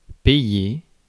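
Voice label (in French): "payer", French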